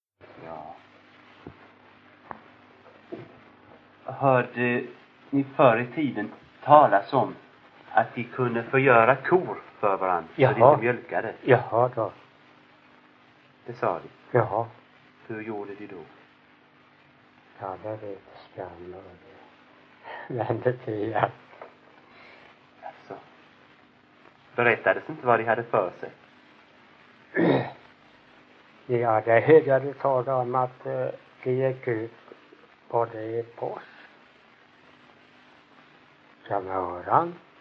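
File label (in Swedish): Ingatorp Småland, Svenska sagor dialekter; Folkminnen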